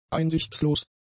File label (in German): "einsichtslos", german; adjectives